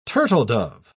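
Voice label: "turtledove", British English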